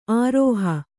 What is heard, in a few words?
"ಆರೋಹ", Kannada; ārōha; noun; the act of ascending; a climbing; a mounting on.; an elevated place.; length.